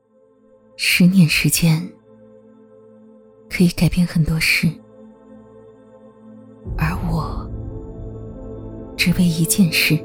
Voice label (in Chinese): 走心旁白; 华为